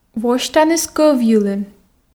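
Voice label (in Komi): восьтаныс кӧв йылын, Komi, female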